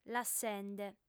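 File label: "lassende", Sardinian, female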